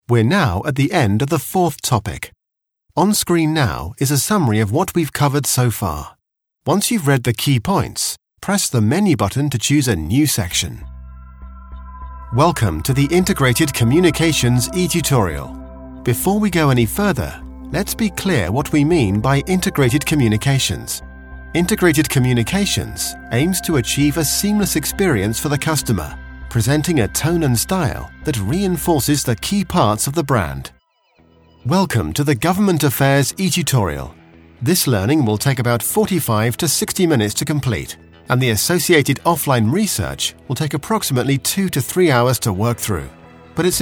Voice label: Elearning; voiceover